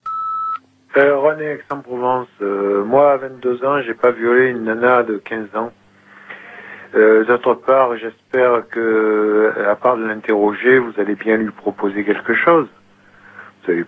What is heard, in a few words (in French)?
repondeur